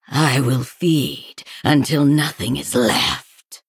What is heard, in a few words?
Female Sanlayn Threaten